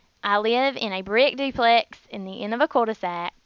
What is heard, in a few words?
southern